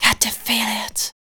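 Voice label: vocal female, Dance Vocals, spoken words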